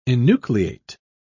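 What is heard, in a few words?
"enucleate", English, British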